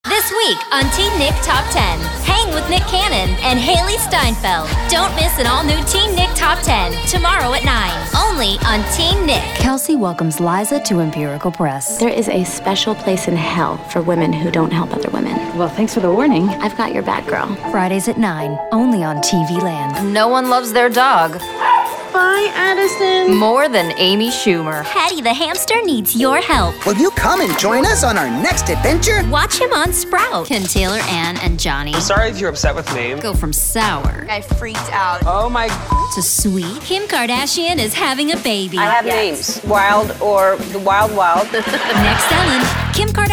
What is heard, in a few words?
Promo Demo